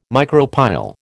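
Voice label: "micropyle", American English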